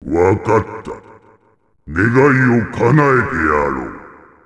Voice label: dragon, grant wish

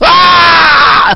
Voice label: viet fly

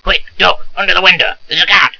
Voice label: old man

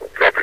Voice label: "copy", metrocop zombie; scmod

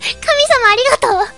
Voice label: cheer

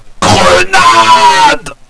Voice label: grenade, radio

